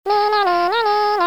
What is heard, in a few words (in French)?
Nananère, Cartoon; bruitage